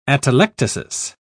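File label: "atelectasis", English, uk British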